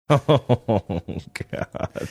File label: laughing saying oh god